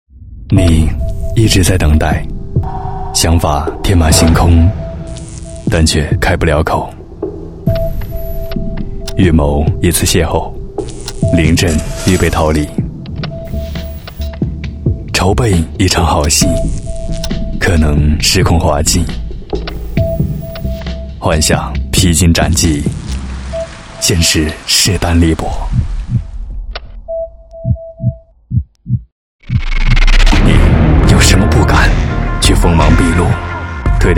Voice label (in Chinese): 男 个性 酷; 酷联赛